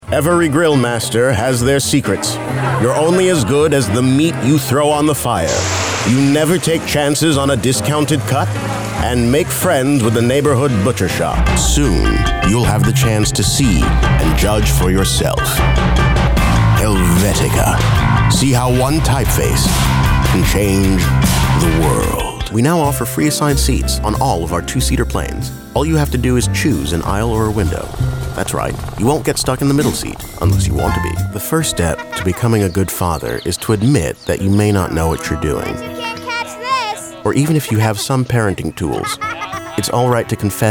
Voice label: Commercial